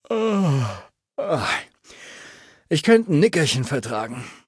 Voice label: Male adult default dialogue hello

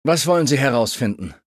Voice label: Male adult default dialogue

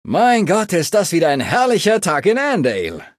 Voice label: Male adult default greeting; Charakter: Bill

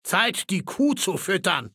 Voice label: Male old

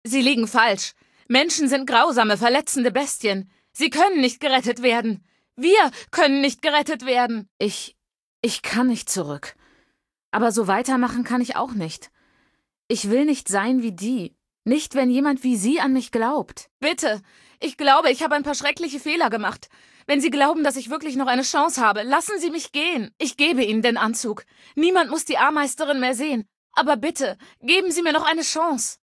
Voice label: Female adult

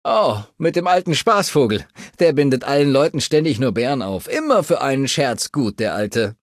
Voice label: Male adult default dialogue old man; Fallout 3: Audiodialoge

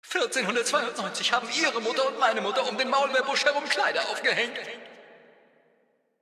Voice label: Male adult default

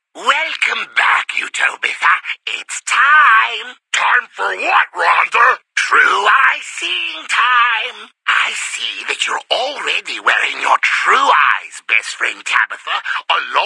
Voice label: News

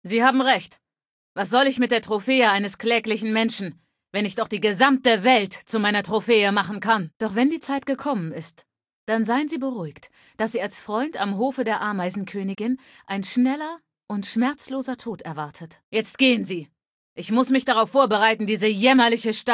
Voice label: Female adult default

